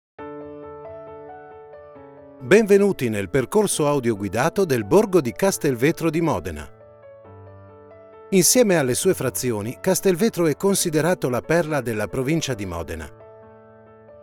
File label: IT, Audioguide